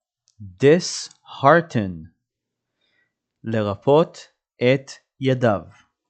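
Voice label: "dishearten" English, "לרפות את ידיו של מישהו" Hebrew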